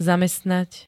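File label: "zamestnať", Slovak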